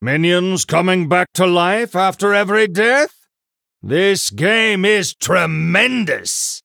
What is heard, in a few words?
Male Human General